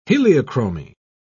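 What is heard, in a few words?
"heliochromy", British English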